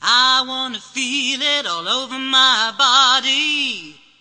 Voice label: diva feel it over my body, cheesy divas

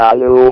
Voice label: "anew", VIDEO GAMES; wow

